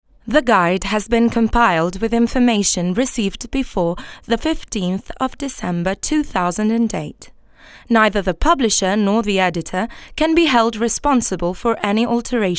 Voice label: english impostata